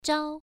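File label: "zhao", Mandarin Chinese, first tone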